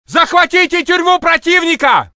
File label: Russian; mohaa; dialogue